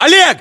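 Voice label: "oleg", battlechatter, Russian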